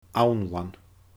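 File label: "annlann", Scottish Gaelic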